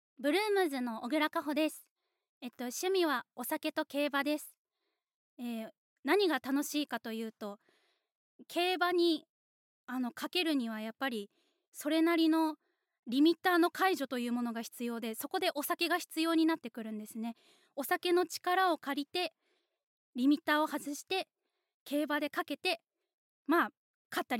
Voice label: Free Talk

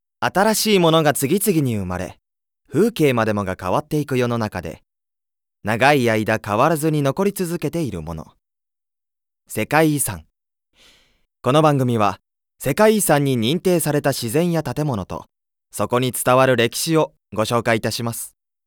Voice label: Narration

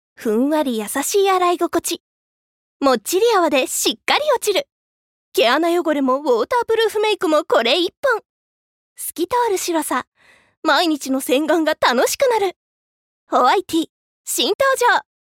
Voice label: Narration

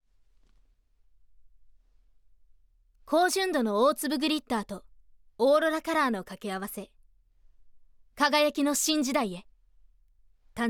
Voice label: Narration Cosmetic

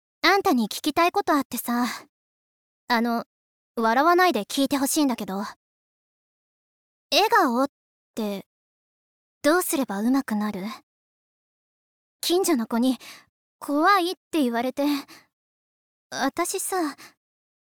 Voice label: Girl School, 女子高生

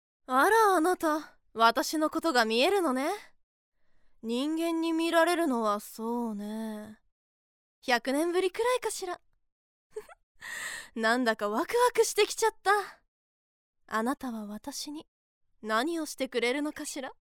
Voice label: Sexy Fairy; セクシーな妖精; bloomz-inc

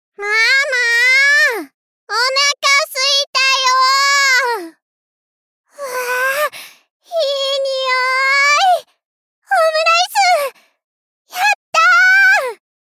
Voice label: voice sample, Girl Young

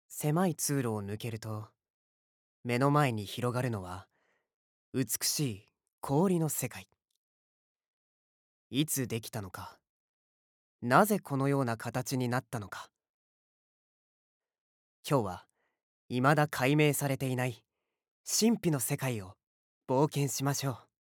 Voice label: narration TV

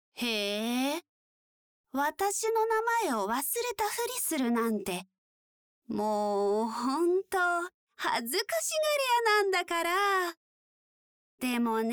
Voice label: Menhera Girl

